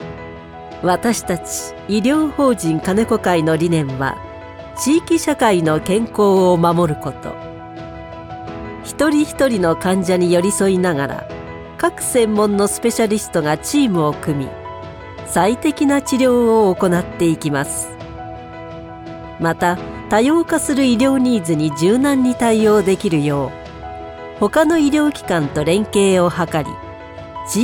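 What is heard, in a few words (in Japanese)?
ナレ, ボイスサンプル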